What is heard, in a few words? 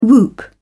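"whoop", British English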